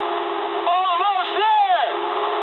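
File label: English near waypoint mood high; speech; War Thunder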